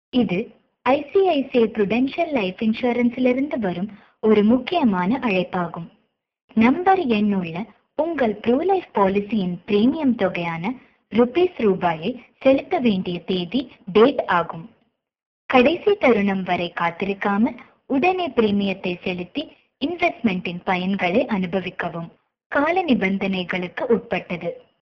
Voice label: Tamil Female